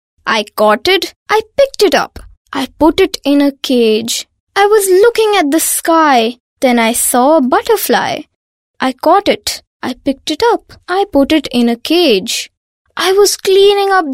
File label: female voice 12 years English